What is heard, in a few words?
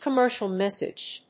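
"Commercial message", English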